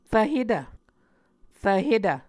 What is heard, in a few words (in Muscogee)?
"rvhetv", muscogee